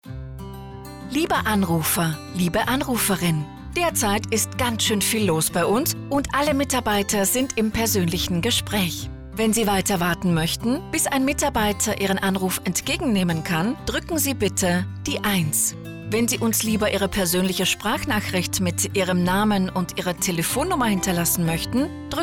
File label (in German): Nachtansage Time Out IVR; Hotel; Hotel Saegerhof